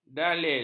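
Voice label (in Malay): "dalil", Malay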